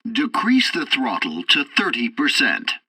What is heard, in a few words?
tutorial fighter throttle, english; War Thunder